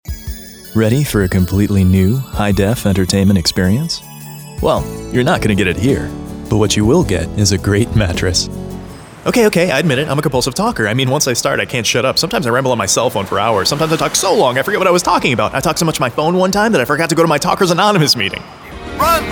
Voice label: male voice